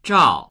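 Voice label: "zhao4", Mandarin Chinese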